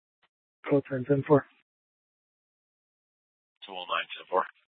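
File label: police dispatch, Scottsdale PD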